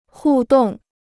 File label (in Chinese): "互动", Chinese